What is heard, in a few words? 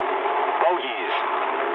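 English enemy detected mood low; War Thunder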